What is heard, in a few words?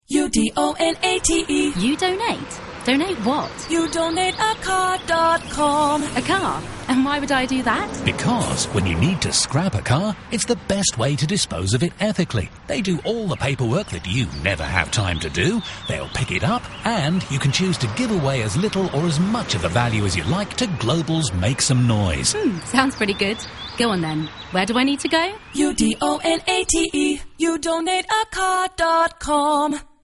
radio ad; global